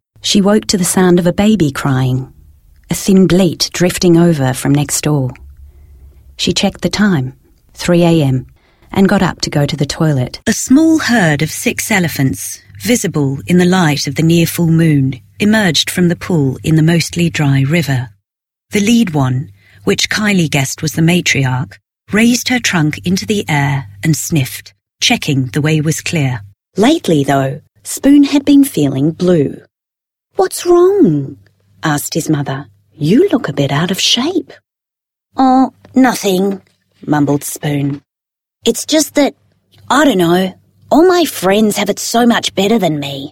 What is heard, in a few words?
Narration